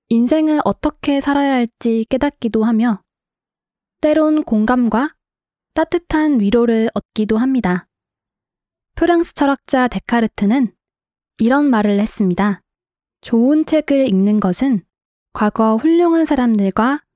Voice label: female korean voice over narrative

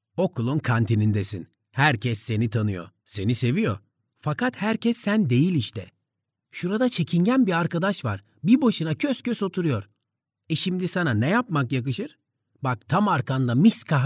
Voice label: male turkish voice over talent sales

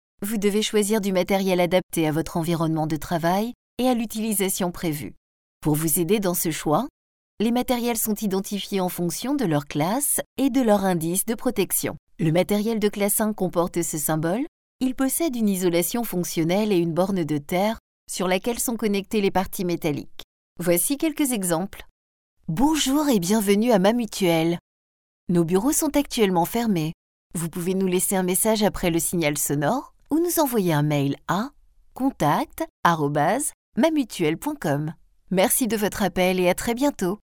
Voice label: female french voice over narrative, france

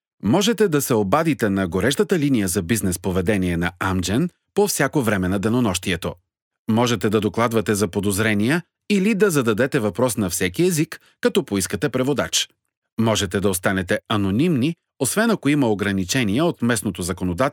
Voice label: male bulgarian voice over narrative

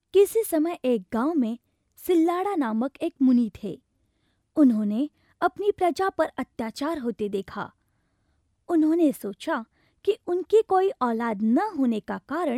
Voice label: female hindi voice over narrative

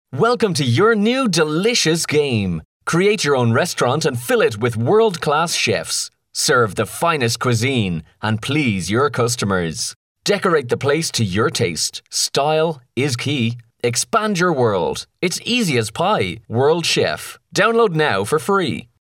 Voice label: male irish voice over upbeat

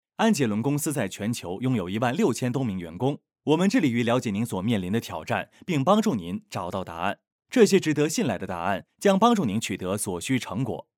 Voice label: male chinese voice over talent narrative

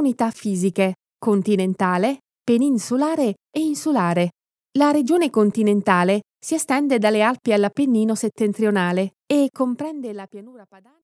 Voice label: female italian voice over narrative

